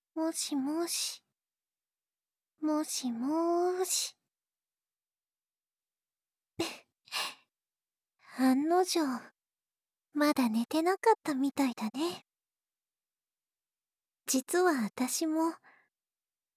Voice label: 囁きボイスで添い寝。SE無し

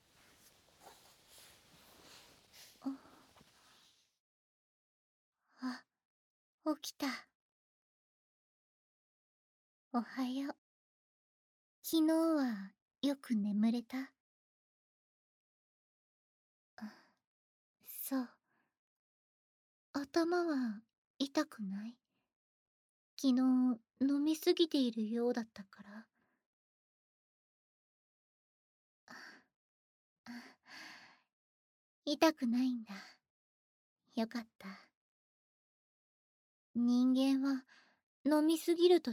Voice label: 子作り要求と淡々耳舐め手コキ くちゅ音なし

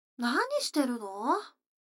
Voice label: 女の子台詞, ASMR

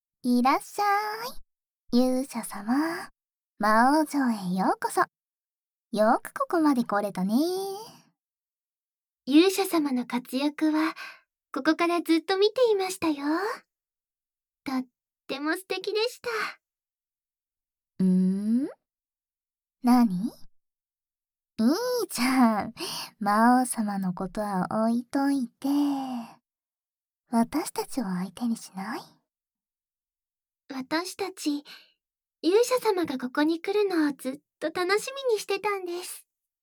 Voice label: 悪魔の囁きと天使の囁きで背徳感煽り両耳舐め手コキ, ASMR

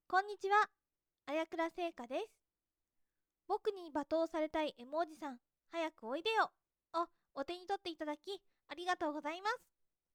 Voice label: 声優コメント

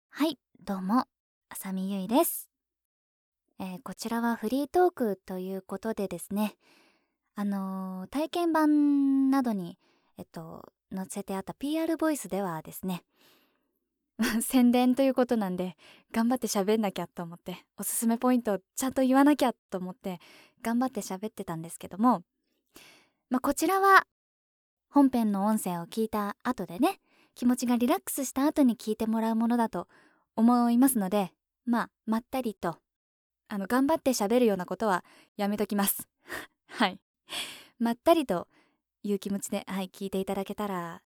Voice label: フリートーク

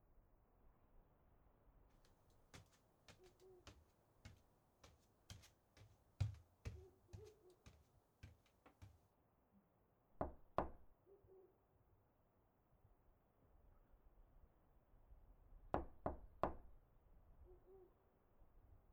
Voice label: 添い寝, ASMR; このまま牛さんになったらどうしよう……。

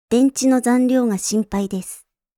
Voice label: 電池の残量が心配です, システムボイス; Windows等向けシステムボイス

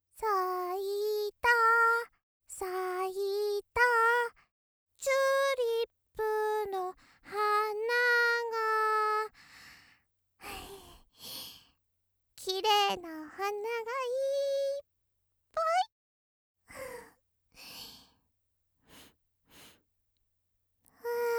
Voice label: ロリ 春だね

